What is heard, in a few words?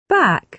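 "back", British English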